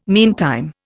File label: "meantime", Indian